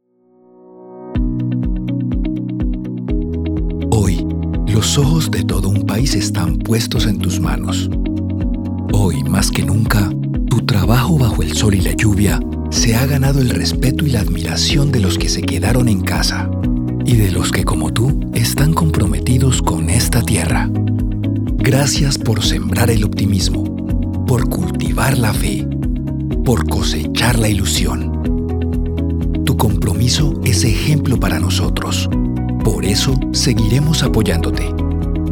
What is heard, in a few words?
VOICEOVER FOR CORPORATE VIDEO